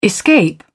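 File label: "escape", British English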